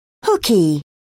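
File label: "hooky", British English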